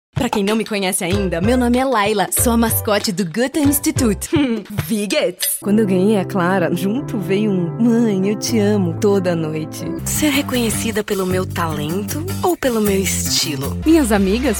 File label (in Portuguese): Atriz